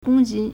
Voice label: "gong1 jin1", Chinese